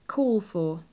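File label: call for, British English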